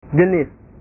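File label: "jenis", Indonesian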